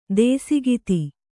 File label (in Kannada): "ದೇಸಿಗಿತಿ", kannada